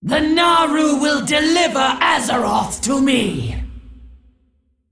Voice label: Female Dragon Boss Idle; Onyxia